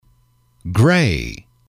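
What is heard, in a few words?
"grey", English, male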